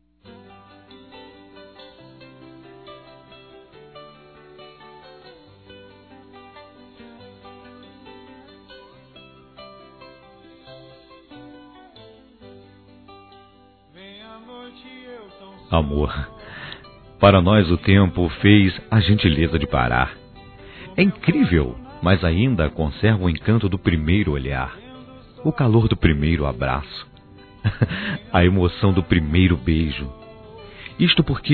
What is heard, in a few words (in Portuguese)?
ANIV. NAMORO M